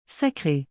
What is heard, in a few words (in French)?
"sacrer", Canadian French; Québec; (to consecrate)